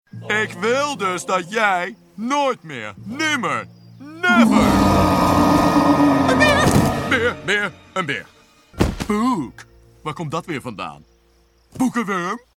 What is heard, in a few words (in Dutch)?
Cartoon